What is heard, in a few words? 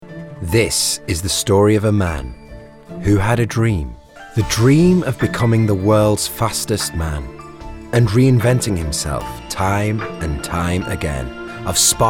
Narrative; 40s-60s. Male. Yorkshire.